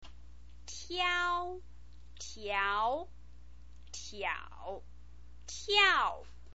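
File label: "tiao", Mandarin Chinese pinyin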